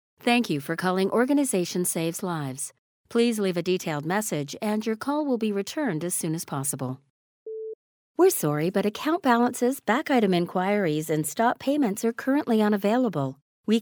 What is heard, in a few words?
english us and canada female commercial